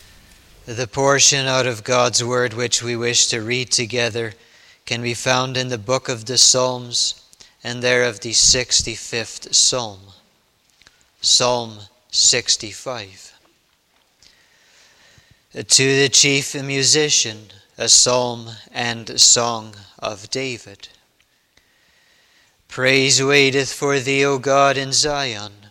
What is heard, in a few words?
Bible Reading; The Lord's Crowning Goodness; psalm 6511